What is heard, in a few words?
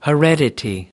"heredity", us